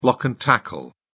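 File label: "block and tackle", British English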